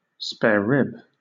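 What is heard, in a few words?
"sparerib", British English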